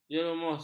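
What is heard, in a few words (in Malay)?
"jerumus", Malay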